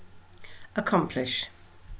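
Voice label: "accomplish", British female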